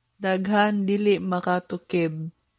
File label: Cebuano